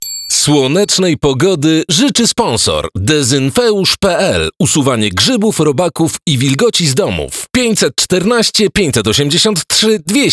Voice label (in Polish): reklama radiowa; dezynfeusz